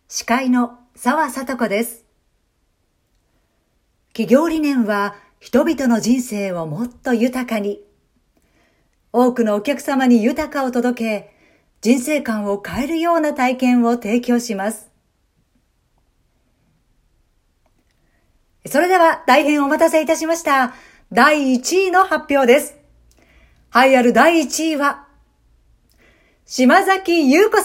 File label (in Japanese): mc sample, 司会者; プロ司会者; NEO FLAG.; 専属プロ司会者